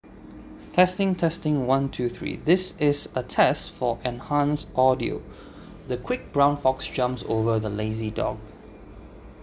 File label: Enhance Speech Far; project sasha